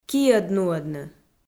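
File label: "киӧд нуӧдны", Komi, female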